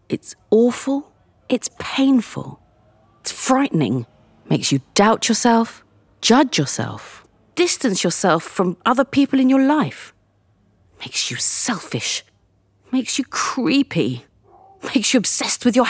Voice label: female English narration compilation

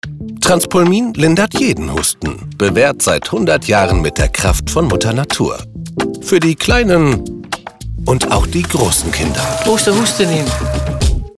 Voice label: male German commercial; transpulmin

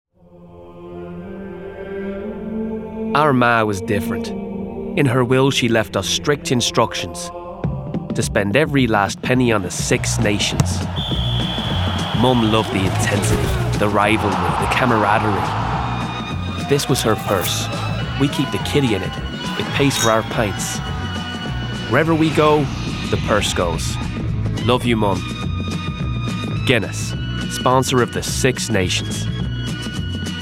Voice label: male english irish accent commercial; Devine Voices

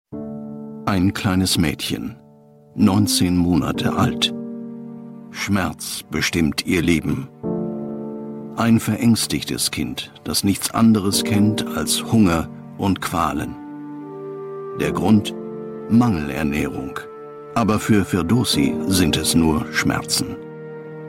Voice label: male German appeal; save the children

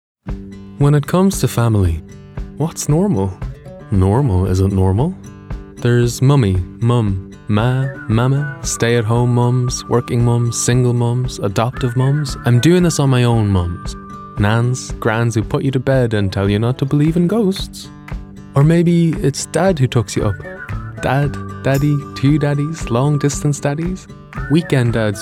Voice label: non binary and male, Irish accent, commercial; irish northern

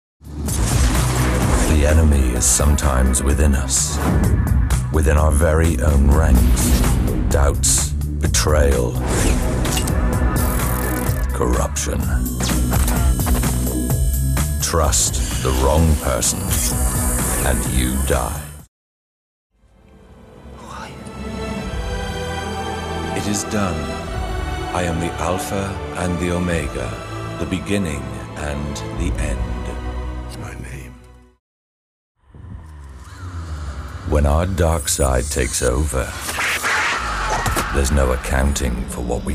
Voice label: male english film trailer; Devine Voices

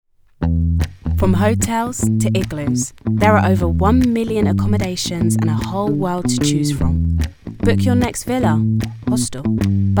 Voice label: female English commercial

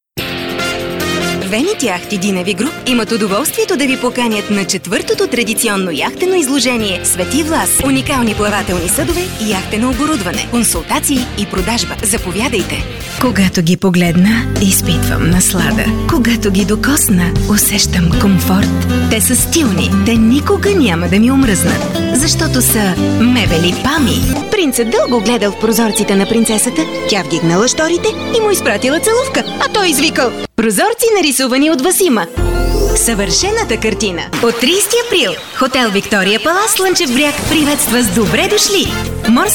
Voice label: female bulgarian commercial compilation

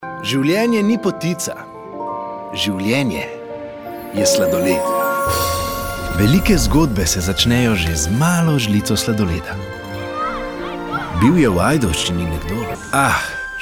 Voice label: male slovenian tv commercial; devine voices

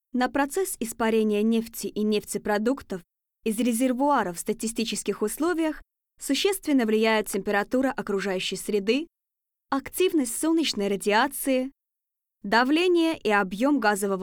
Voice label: female russian e-learning

